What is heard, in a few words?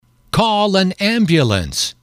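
"call an ambulance", male, English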